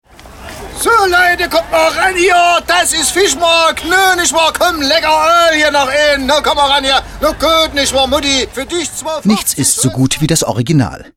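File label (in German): Sächsisch, Dialekte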